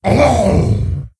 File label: Wound Crit, Creature; Prophet Tharonja